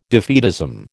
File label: "defeatism", American